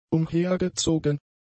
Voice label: "umhergezogen", German; adjectives